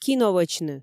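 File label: "кино вӧчны", Komi, female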